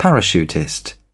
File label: "parachutist", British